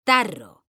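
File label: "tarro", Spanish, female; mekanta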